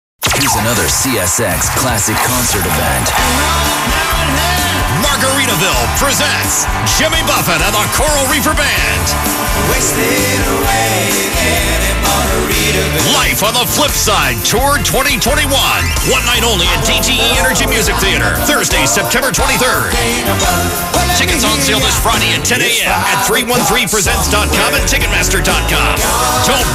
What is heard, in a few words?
radio ad